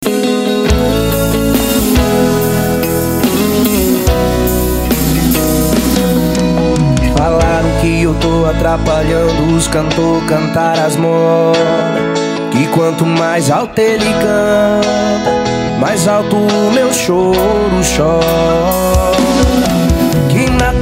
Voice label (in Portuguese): locutor a voz magnifica